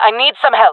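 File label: request help, female voice; player vo jungle fem; Counter Strike Model Pack; CS:GO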